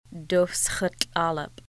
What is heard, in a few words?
"skipper", Lushootseed